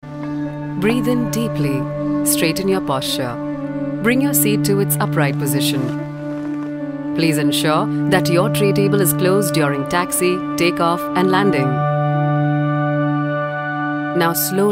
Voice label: Indian English narration